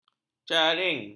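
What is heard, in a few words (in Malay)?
"cering", Malay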